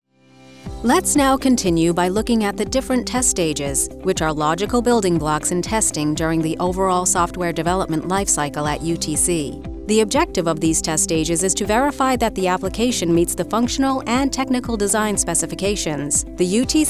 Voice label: E-LEARNING